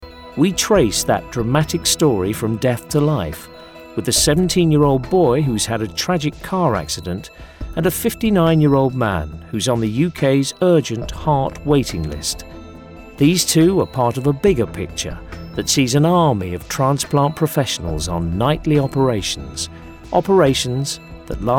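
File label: narration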